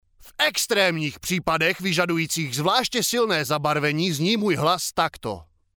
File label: male czech voice over sales